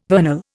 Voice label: "vernal", Indian

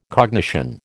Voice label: "cognition", American

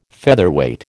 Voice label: "Featherweight", American

